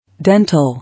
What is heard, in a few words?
"Dental", English